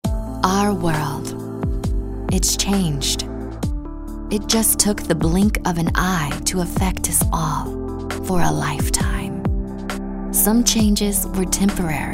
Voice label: smooth

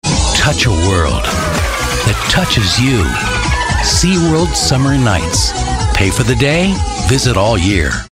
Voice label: softspoken friendly

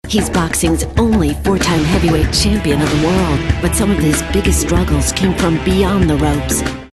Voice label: Television Imaging Attitude Imaging Serious

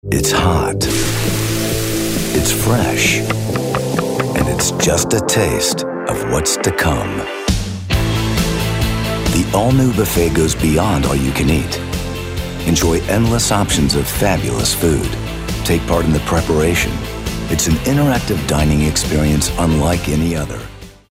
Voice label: soft spoken

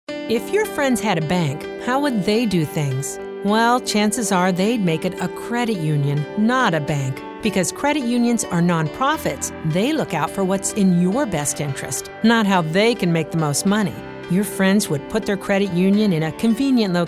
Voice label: real people midlife

